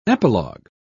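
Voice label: "epilog", British English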